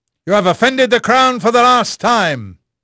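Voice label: English male; Pirates Of The Caribbean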